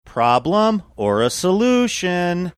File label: saying problem or solution sing songy